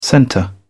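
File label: "centre", British English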